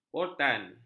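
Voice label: "voltan", Malay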